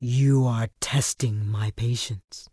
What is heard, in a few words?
Tauren Female Official NPC Pissed